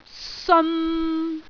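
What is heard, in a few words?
"seon3", Cantonese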